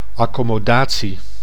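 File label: "accomodatie", Dutch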